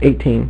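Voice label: "eighteen", male; MacCribbage; InterStellar MacCribbage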